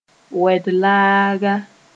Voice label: "wed-laaga", moore

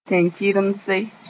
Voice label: "tẽn-kɩremse", Moore

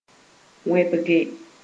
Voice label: "wẽbge", moore

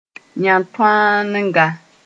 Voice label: "yã-tõaanega", moore

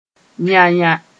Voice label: "yã-yã", moore

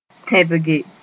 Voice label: "tẽbge", Moore

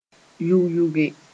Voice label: "yʋg-yʋge", moore

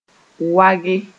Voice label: "wage", Mooré

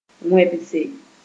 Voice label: "wẽbse", moore

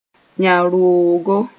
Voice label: "yão-roogo", moore

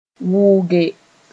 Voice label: "wʋnge", moore; to make sb. deaf

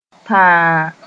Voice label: "tãa", moore

